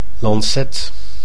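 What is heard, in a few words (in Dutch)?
"lancet", Dutch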